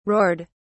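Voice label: "roared", British English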